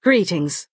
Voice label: Bosmer female "hello"